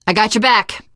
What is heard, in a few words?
im with you, teengirl; voice; source engine; left 4 dead 2; survivor